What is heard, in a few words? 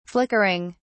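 "flickering", British English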